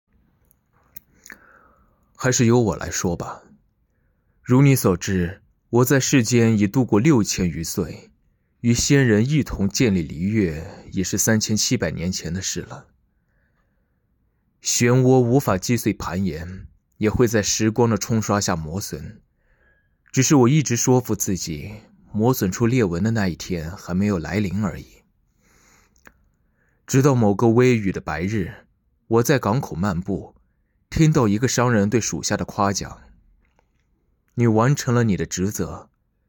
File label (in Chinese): 游戏沉稳青年